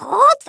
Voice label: BORING, Dutch; Worms Armageddon